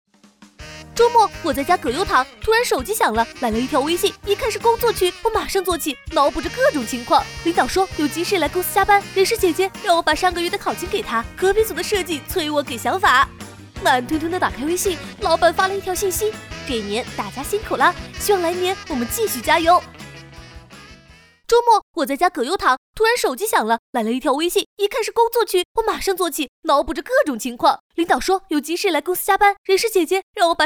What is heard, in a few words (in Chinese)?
女 H5配音 超快语速; 飞碟说